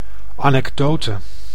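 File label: "anecdote", Dutch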